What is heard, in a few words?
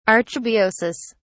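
"archebiosis", English, British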